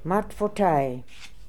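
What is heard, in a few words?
"Martfotai", pronunciations; Fourth Way; Beelzebub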